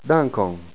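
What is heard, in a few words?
"dankon" Esperanto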